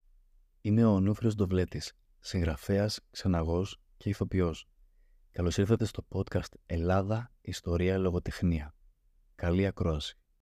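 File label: Greek audio, voice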